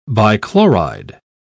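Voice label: "bichloride", British English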